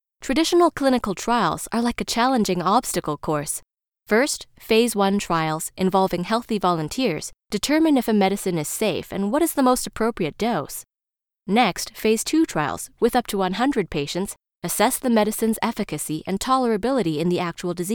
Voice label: Medical Explainer